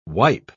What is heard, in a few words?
"wipe", pronounce